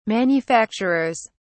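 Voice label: "manufacturers", English, British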